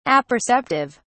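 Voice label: "apperceptive", British English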